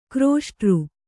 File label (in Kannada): "ಘೃಷ್ಟಿ", Kannada